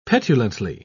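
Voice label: "petulantly", English, British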